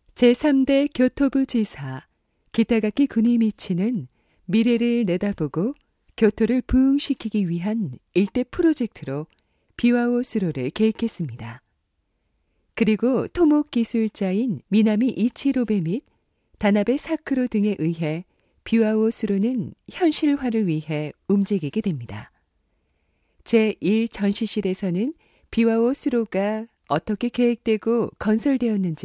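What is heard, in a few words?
1st exhibition room korean; Voice guidance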